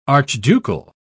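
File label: "archducal", English, British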